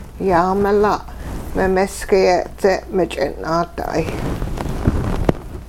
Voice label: Animals ring fingers, hupa

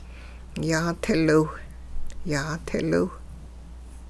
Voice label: animals dive swim underwater, hupa

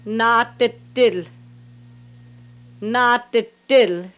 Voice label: we are here Redwood Creek Dialect, hupa

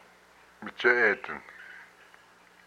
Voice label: "baby", hupa

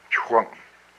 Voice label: "dung", hupa

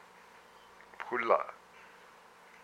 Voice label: my finger, hupa

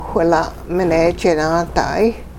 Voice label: My middle finger, hupa

